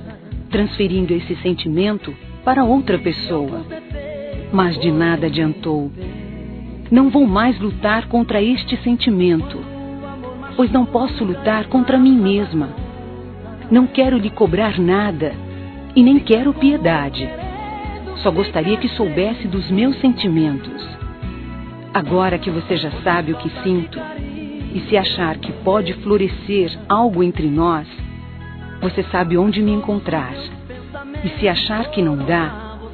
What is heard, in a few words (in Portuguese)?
AMOR NÃO CORRESPONDIDO, Telemensagens, Fem